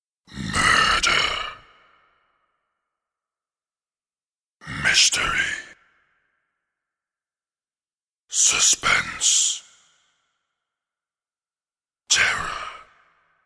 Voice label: Murder, Eerie nightmare screams followed by The word ‘Murder’ spoken in deep sinister v; Eerie nightmare screams followed by The word ‘Murder’ spoken in deep sinister voice with impact hit.; Stingers; Sound Design; Murder Mystery Pack